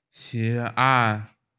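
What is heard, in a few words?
"like", Mandarin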